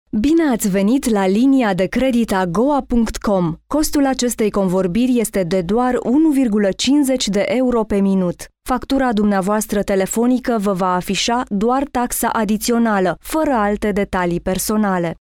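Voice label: Female Romanian IVR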